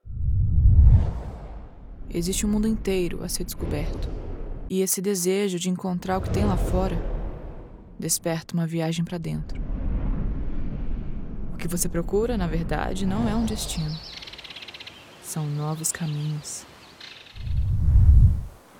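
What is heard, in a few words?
commercial ad poetic monotone detached female brazilian portuguese english; linus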